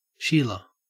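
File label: "sheila", Australian English; pronunciations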